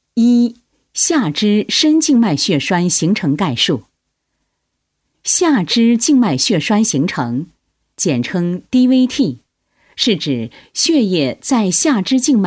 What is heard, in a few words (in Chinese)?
女 医疗产品 医用弹力袜健康教育 大气稳重